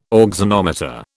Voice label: "auxanometer", British